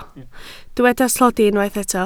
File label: "tlodi", Welsh